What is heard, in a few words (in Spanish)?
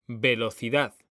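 "velocidad", Spanish, male; mekanta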